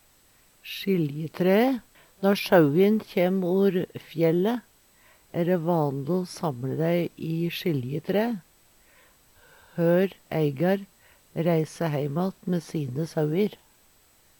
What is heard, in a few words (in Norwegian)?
sjiljetraee, Numedalsmål; sjiljetræe